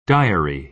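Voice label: "diary", American English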